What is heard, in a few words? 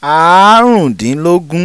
"Aarundinlogun", Yoruba